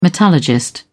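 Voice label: "metallurgist", English, British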